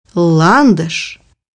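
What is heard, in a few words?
"lily of the valley", Russian; bukvar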